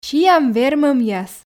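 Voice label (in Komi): сиам вермӧмъяс, Komi, female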